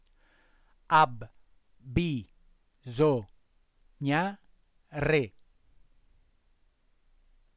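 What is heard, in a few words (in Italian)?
"abbisognare" Italian word, voce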